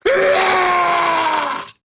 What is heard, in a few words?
Attack Grineer Ghoul Sargeant, en; Grineer Ghoul Drill Sargeant; Battle Barks